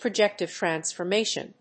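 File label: "Projective transformation", English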